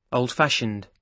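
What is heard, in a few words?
"old fashioned", British English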